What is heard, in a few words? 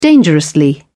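"dangerously", British English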